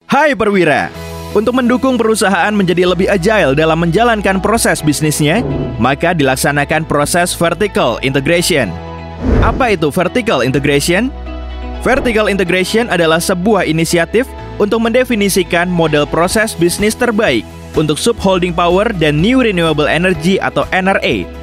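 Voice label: Bahasa Indonesia Video Explainer; Pertamina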